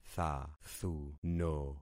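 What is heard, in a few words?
"thathuno", concatenated syllables; Affixing experiment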